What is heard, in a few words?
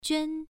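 "juan", Mandarin Chinese, first tone, pronunciation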